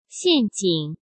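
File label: "陷阱", Mandarin Chinese; hsk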